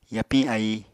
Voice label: "yapiñ ái", Apatani

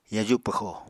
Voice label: "yaju pwxo", Apatani

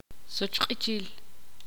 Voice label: ixil; voltear dar la vuelta

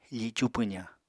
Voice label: "ficu pwzañ", Apatani

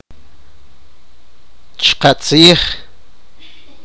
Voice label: sipakapense; correct

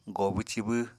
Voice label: "goobw-cibw", Apatani

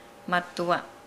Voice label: "madua", Nukuoro; old

